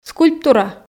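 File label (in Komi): "скульптура", Komi, female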